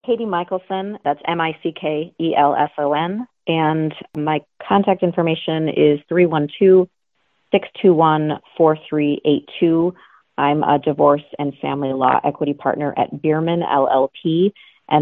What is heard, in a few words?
Testimonial